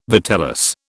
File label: "vitellus", British